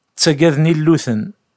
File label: Kabyle; Ttagaden illuten.